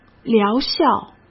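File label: "疗效", Mandarin Chinese; chinese-flashcards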